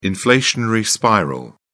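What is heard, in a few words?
"inflationary spiral", British English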